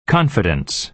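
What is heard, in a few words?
"confidence", American English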